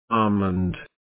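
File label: "almond", British English, male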